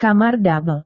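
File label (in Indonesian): "kamar double", Indonesian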